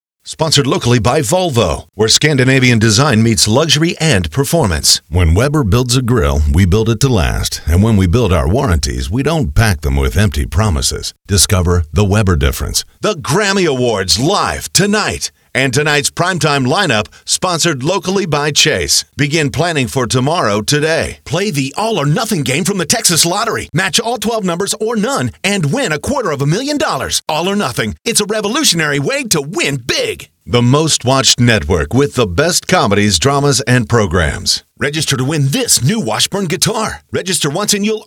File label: dry voice